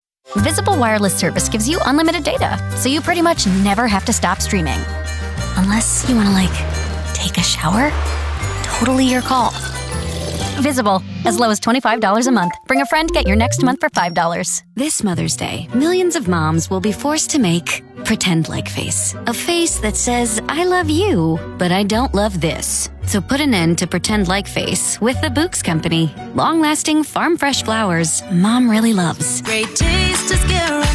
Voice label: commercial women